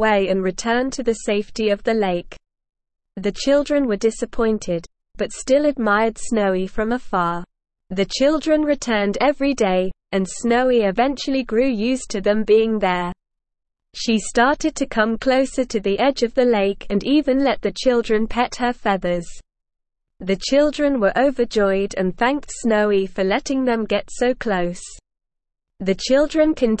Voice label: ESL Short Stories for Kids SLOW reading The Elegant Swan